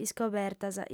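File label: "iscobertas", Sardinian, female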